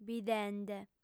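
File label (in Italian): "bidende", lingua sarda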